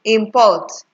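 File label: "import", Nigerian Pidgin, female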